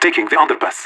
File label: taking underpass, radio; LEET